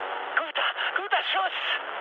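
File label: German player killed enemy mood high, speech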